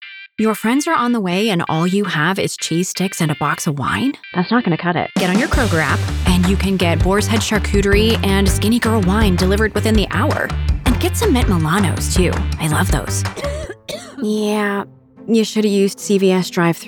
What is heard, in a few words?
Commerical